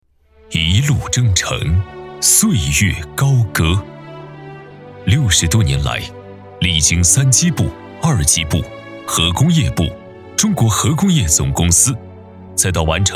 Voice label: 男 VCR; 中核集团投资者见面会

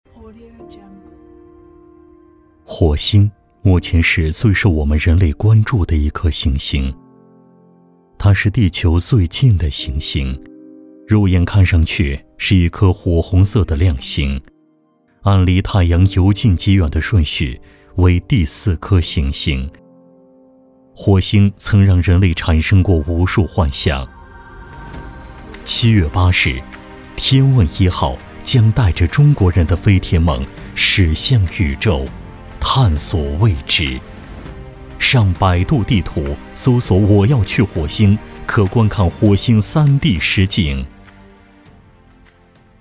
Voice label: 男 旁白; 火星

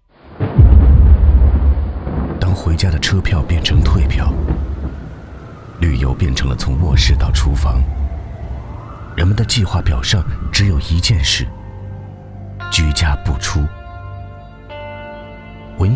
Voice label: 男 微电影; 抗击疫情; 当回家的车票; 变成退票

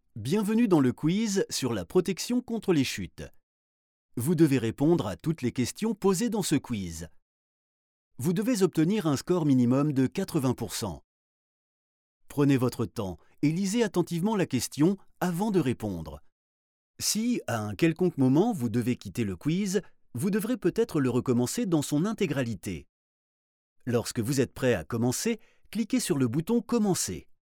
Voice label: 法语男 E-learning

